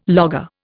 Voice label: "logger", Indian